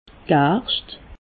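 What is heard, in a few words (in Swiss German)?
"garscht", Alsatian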